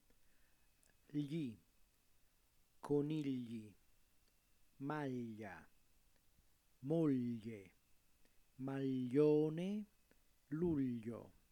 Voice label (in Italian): "gli" Italian